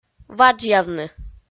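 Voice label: "ваджъявны", Komi, female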